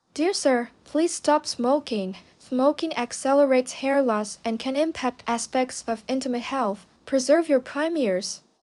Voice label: 40-49 male; Storytelling